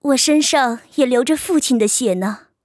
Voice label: 女

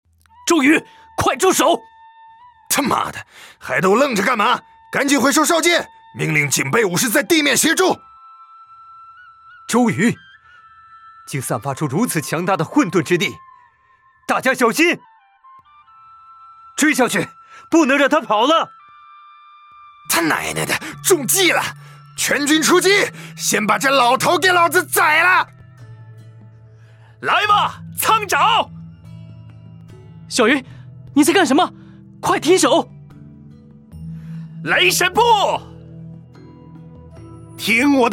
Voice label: 男

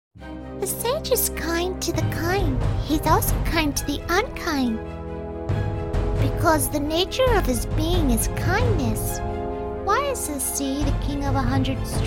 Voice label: 萝莉-英语-女

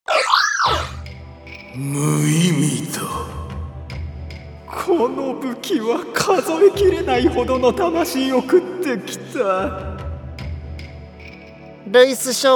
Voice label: 其他 日语 男

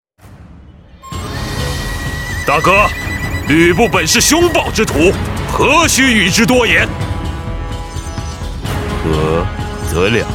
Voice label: 男

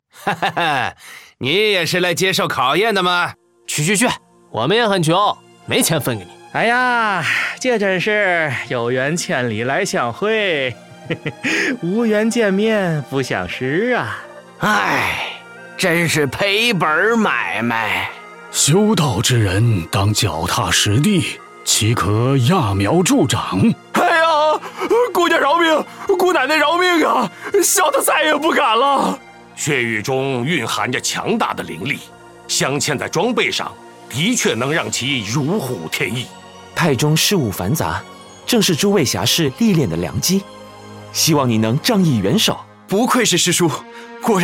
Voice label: 男; 仙剑

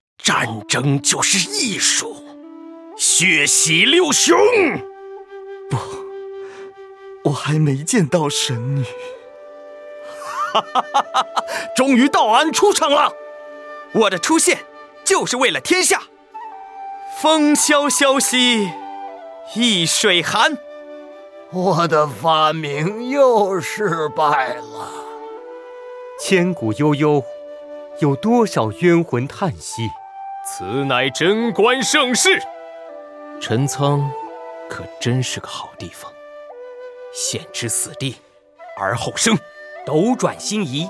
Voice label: 男

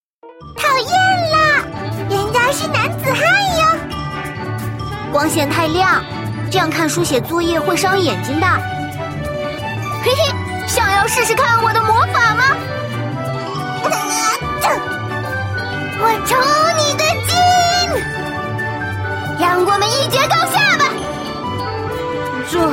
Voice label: luoli zhengtai

